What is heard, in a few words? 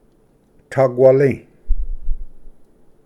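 "taquali", Cherokee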